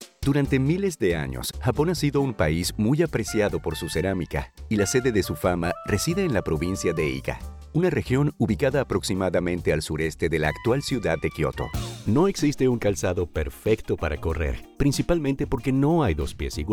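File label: Latin American Spanish, Corporate; voice demos; GoLocalise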